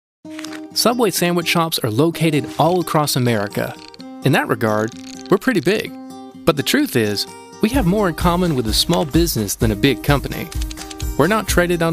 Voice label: EngUS Freundlich; Fremdtake